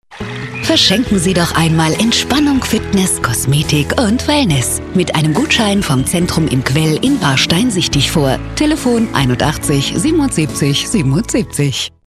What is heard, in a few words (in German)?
Radiospot